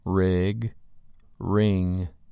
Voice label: "rig" "ring"